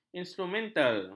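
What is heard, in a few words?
"instrumental", Malay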